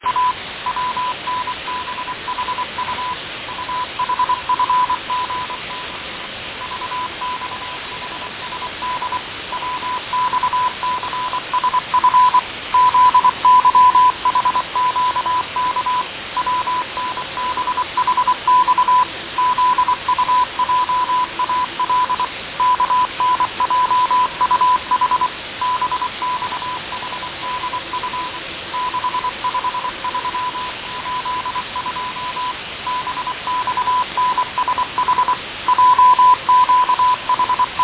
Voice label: 6824 usb voice test, morse